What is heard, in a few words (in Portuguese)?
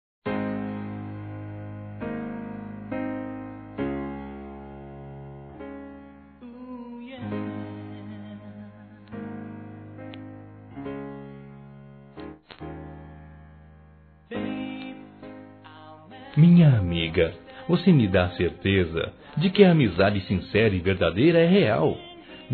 romantico Masculino; Telemensagens; Saudades